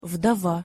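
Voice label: "vdova", Russian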